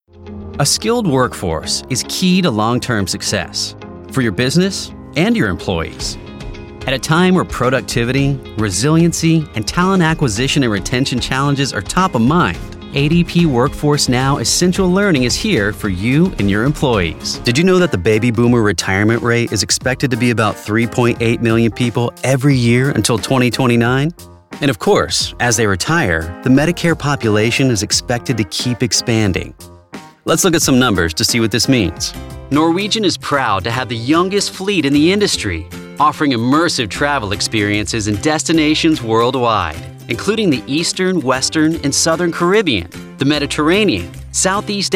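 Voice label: narration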